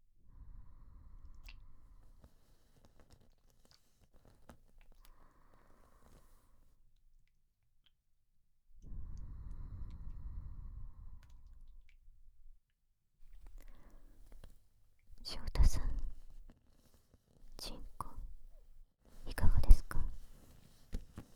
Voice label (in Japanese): 睾丸マッサージ, ASMR; BGMなし; 新人研修!ちんこマッサージ店(フォーリーサウンド)